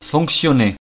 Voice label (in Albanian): "fonctionner", frengjisht